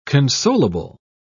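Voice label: "consolable", English, British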